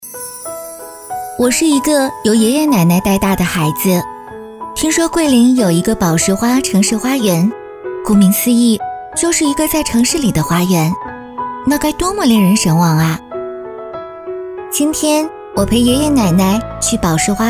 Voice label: 专题讲述感; 宝石花城市花园

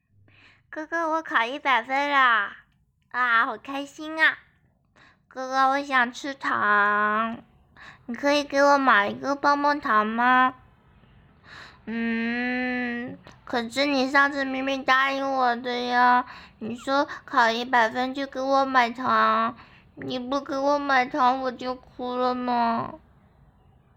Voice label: 可爱风

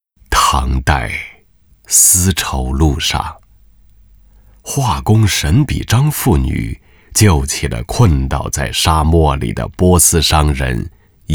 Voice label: 专题片 历史沧桑感

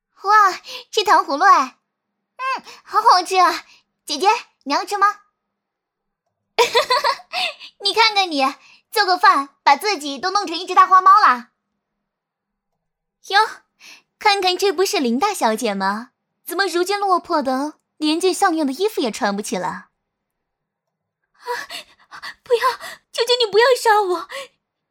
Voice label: 少女少御角色音

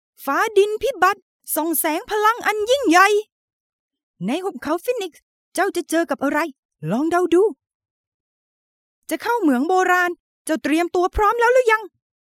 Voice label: 泰语女

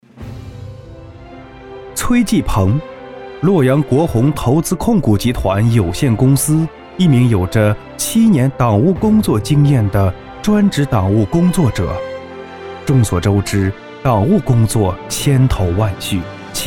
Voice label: 专题人物介绍 大气沉稳舒缓; 人物专题成品

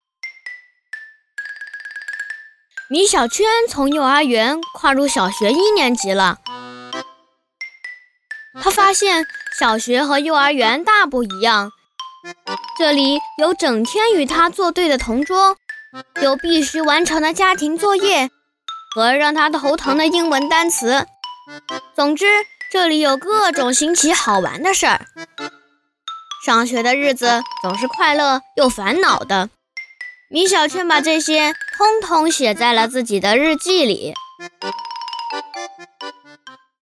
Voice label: 真男童样音; 真男童样音

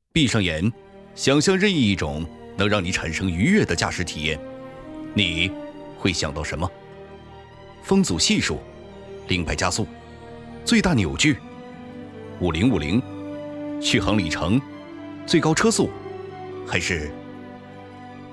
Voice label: 中音; 宝马

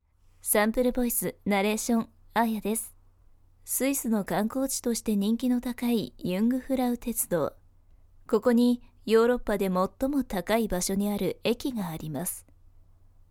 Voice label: 旁白

